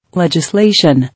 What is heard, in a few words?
"Legislation", English